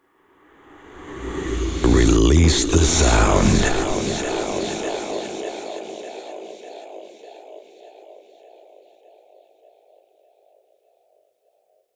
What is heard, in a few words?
ID low echo